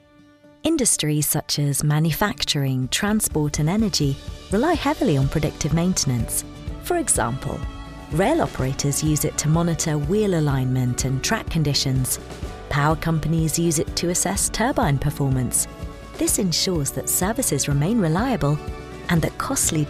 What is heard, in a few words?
EXPLAINER FRIENDLY, en-GB